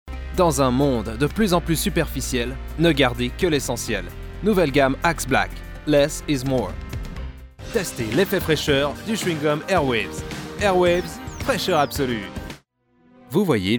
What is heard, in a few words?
french male commercials